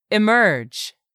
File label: "emerge", English; 3000 words